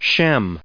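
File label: "Shem", US English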